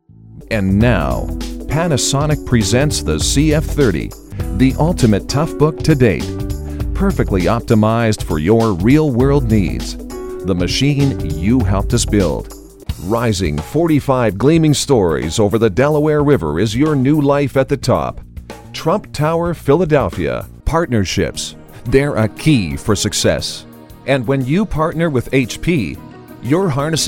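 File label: english us male commercial; voice demos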